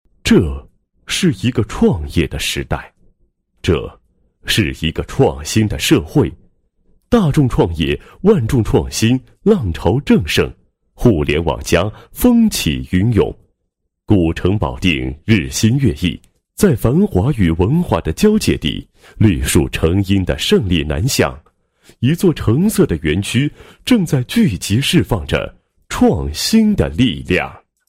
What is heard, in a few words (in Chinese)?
男 企业; 竞秀创新基地